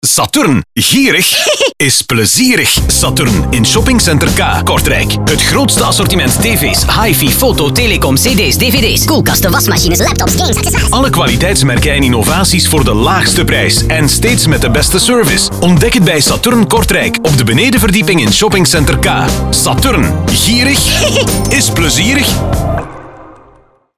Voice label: commercial; saturn kortrijk; Breakout